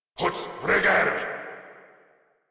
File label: Player Spotted, Grineer Male